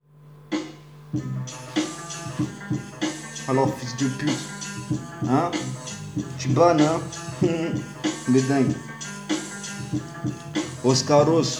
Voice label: memo voice

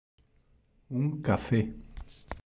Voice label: voice changer

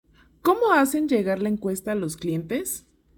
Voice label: Voice Changer

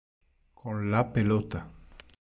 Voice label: voice changer

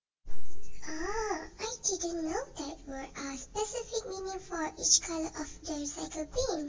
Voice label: VoiceFX kid

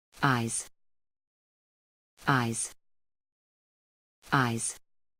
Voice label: How to Pronounce eyes American English

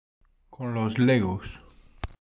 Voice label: voice changer

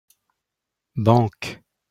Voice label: pronunciation fr banque

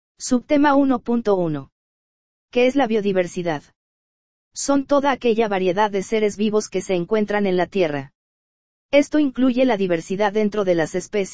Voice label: narration

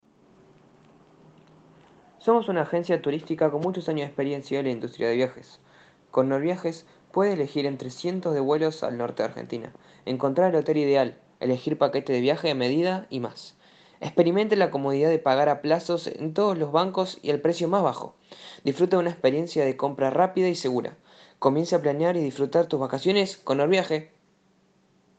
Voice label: WhatsApp Audio

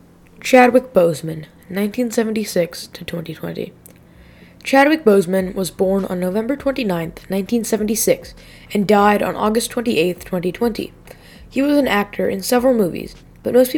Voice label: voiceover; BHM project

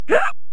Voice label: hurt; rayman

